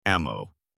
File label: "ammo", voice; space game